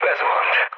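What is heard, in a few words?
Hungarian aircraft destroyed mood low, speech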